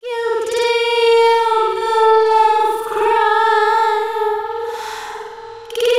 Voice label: VOICE FX, Drum Bass, 160; eJay